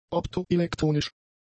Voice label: "optoelektronisch", German; adjectives